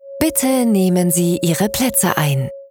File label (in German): DE W, eventansagen